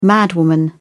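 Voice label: "madwoman", British English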